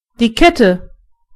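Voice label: chain, German voices words; gcompris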